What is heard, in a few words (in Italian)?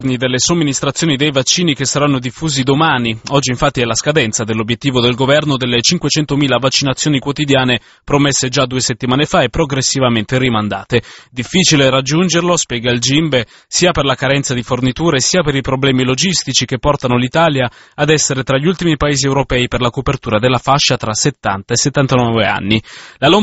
GIORNALE RADIO; RADIO POPOLARE; Dati sui vaccini anti-COVID